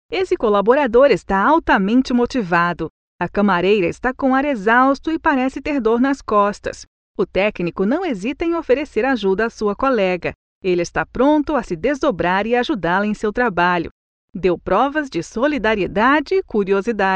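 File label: Portuguese Brazil, female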